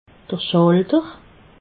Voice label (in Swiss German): "schalter", alsace